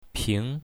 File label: "ping2", Mandarin; drinks